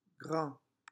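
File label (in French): "grand", French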